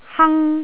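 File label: "hang1", Jyutping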